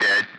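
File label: Speech Russian; Allied Flight; Digits; DCS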